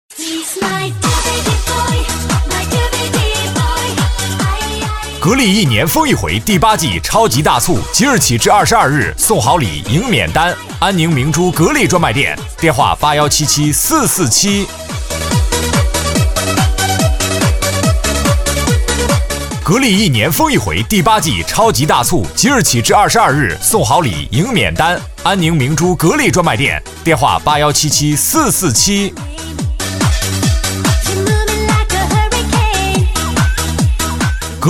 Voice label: 配音师男国语, 庄重大气 激情活力, 广告; 格力一年疯一回

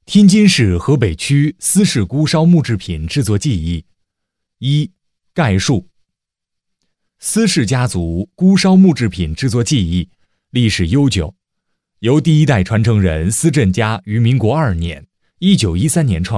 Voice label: 配音师男国语, 庄重大气 激情活力, 专题; 木制品技艺

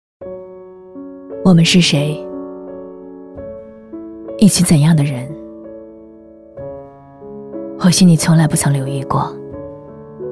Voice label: 国语 温柔 亲切, 旁白; 小黑裙

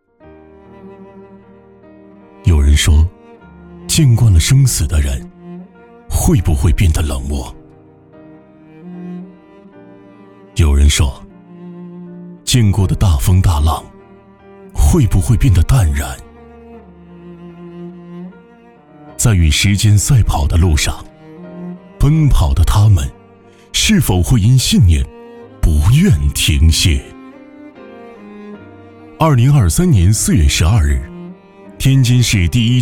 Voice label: 男国语, 旁白; 护士节

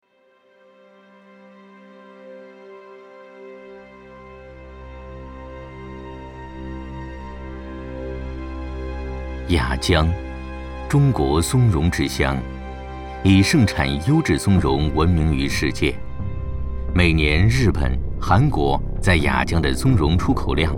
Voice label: 【舌尖体】, 国语; 雅江松茸

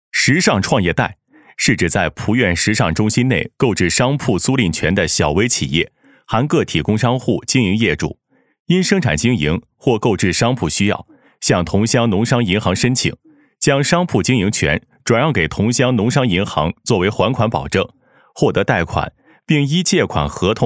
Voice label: 男 国语 配音, 浑厚 大气 时尚, 专题; 时尚创业贷

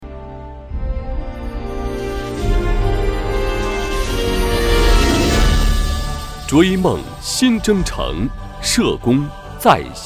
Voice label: 男 国语 磁性 激情, 专题; 社工在行动

